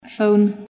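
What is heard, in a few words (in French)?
"telephone", English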